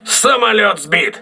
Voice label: voice message aircraft destroyed, crew ships russian